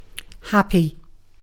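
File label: "happy", British English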